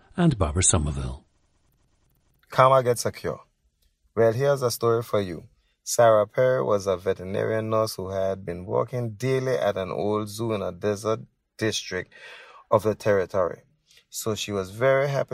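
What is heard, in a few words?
anguilla, dialects of English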